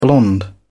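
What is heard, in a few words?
"blonde", British English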